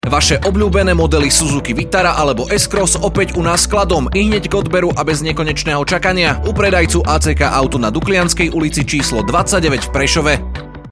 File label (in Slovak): reklama